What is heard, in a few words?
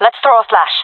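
request flash, female voice; player vo jungle fem; Counter Strike Model Pack; CS:GO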